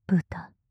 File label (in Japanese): "豚" 極近 右, ASMR